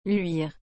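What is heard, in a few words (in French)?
"luire", French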